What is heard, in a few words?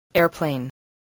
"airplane", English